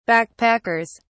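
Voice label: "backpackers", British English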